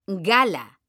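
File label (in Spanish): "gala", Spanish, female; mekanta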